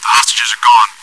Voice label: chitchat, bot, voice commands; svencoop